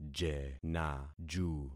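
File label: "jenaju", concatenated syllables; Affixing experiment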